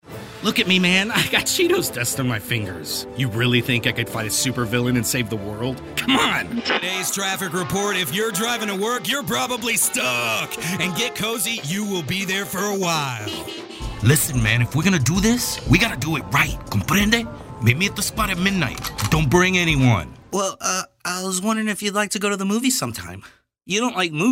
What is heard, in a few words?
Animation